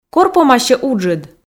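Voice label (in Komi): кор помасьӧ уджыд, Komi, female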